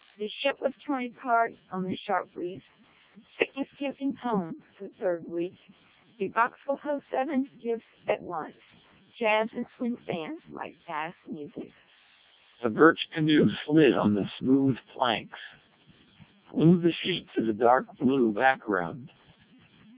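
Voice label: American English speech, CODEC2 vocoder; 700 bps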